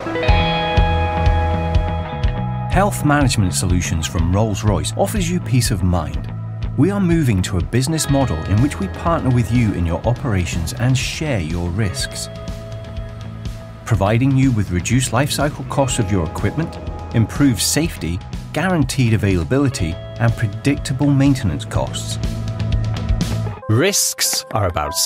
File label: Corporate Narration